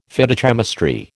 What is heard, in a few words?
"Phytochemistry", American